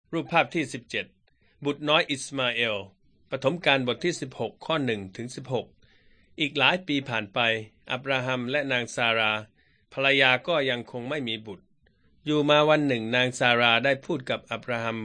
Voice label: beginning with god thai central